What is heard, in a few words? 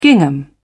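"gingham", English, British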